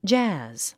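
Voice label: "jazz", English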